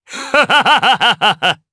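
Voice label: Vox Happy Japanese; DarkKasel; kingsraid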